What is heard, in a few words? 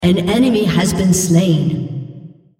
female On Champion Kill Hero